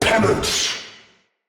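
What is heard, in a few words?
Attack Heavy, Battle Barks, Dialog, English; Nimrod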